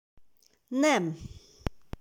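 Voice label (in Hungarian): "Nem", Hungarian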